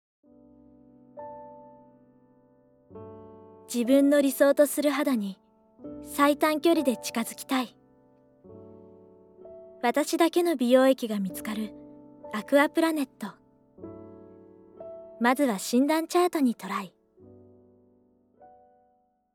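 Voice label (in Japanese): 落ち着き, ナレーション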